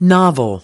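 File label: "novel", American English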